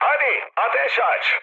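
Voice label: Turkish attack position, mood low, speech; War Thunder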